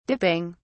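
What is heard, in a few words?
"dipping", British English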